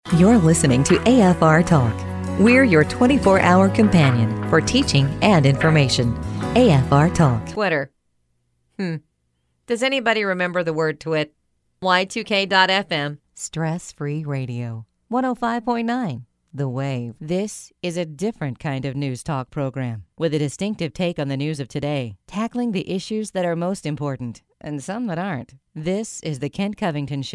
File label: Imaging Sample